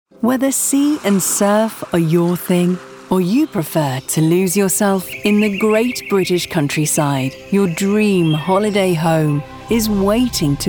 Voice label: Commercial Showreel; VO Pro Cast